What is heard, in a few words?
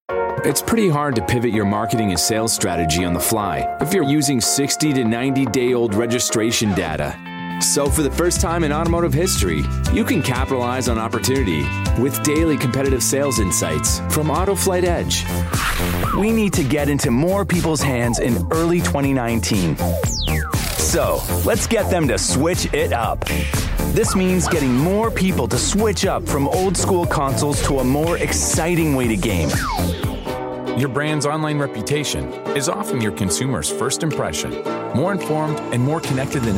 Corporate